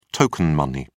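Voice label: "token money", British English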